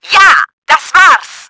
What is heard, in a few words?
THUNDERHEAD DAMAGED, german; Battle Engine Aquila